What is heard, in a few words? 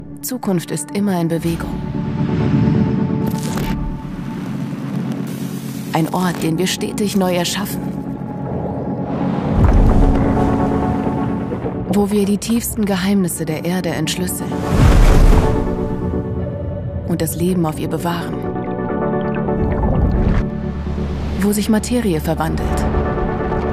Image, Imagefilm, German; Imagefilm 30 Jahre; Helmholtz-Gemeinschaft